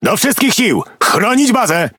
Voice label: Speech Quick Commands Ally Base, PL; World Of Warships